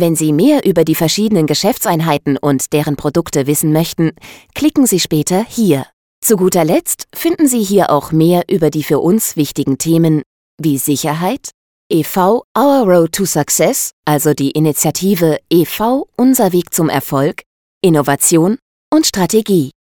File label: e-learning; basf